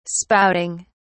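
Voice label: "spouting", British English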